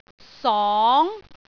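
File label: "song2", Cantonese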